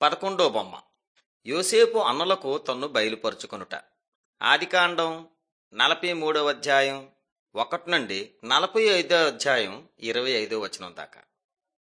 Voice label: telugu bangladesh; mighty men of god

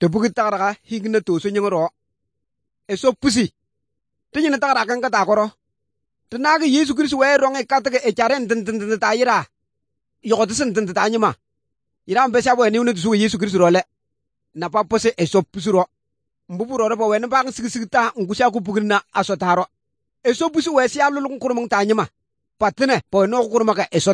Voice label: good news, kabiye bible

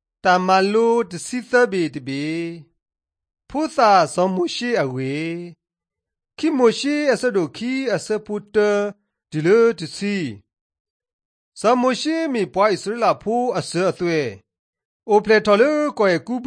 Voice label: drama, karen sgaw; mighty men of god; bible

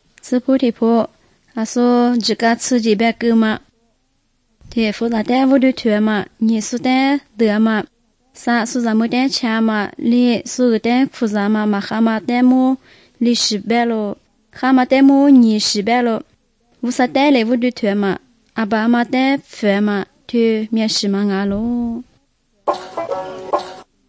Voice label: good news lisu southern, drama

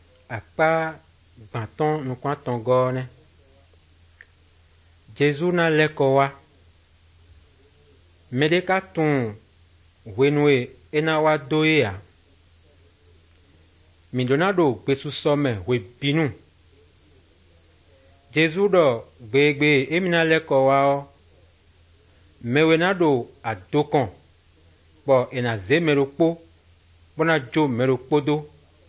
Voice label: good news, fon fongbe bible drama

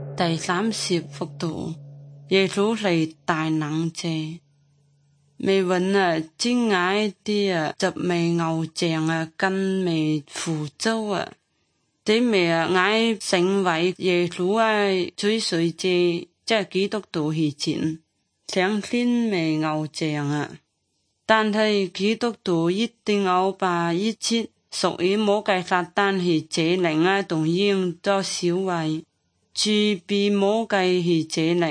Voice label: good news zhuang guigang guiping

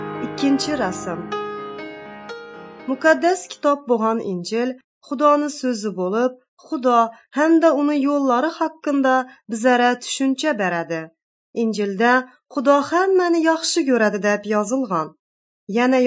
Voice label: uzbek northern kipchak; good news

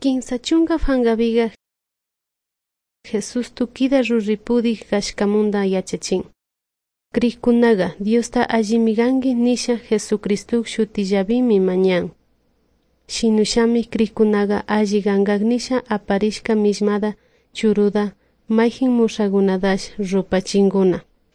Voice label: good news quichua de salasaca

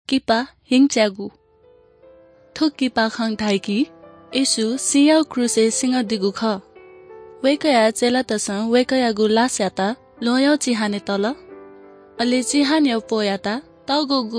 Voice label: good news newari bhaktapur

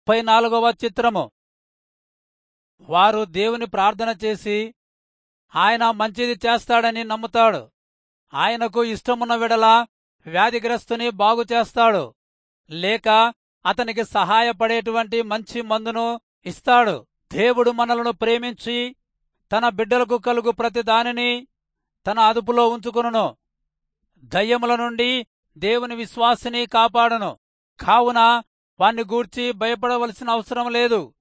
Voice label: telugu rayalseema; good news

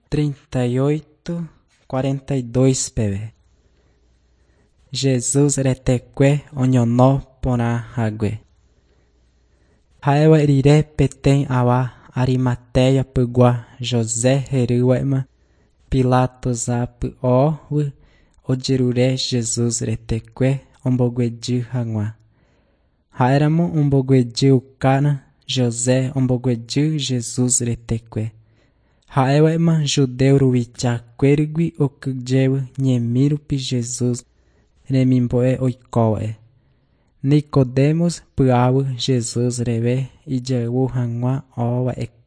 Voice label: john guarani mbya, bible

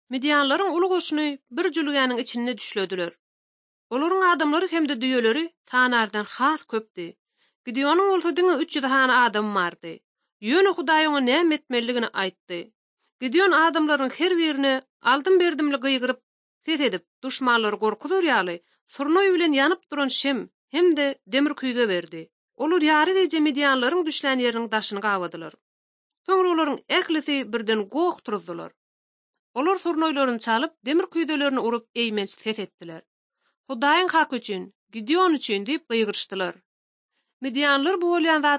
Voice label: turkmen, ot drama

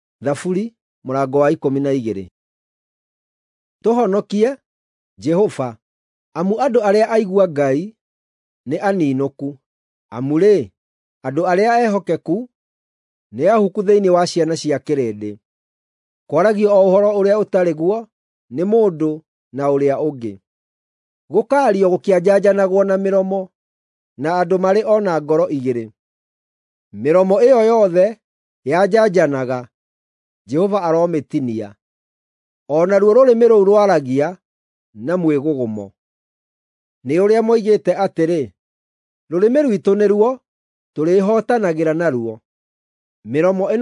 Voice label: psalms, gikuyu drama

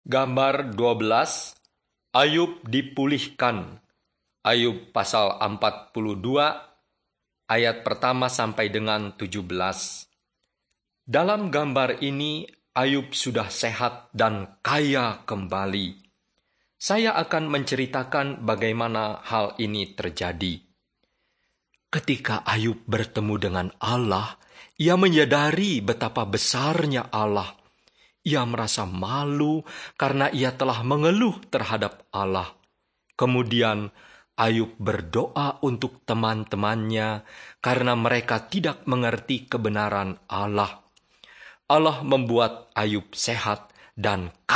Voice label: bahasa indonesia; beginning with god

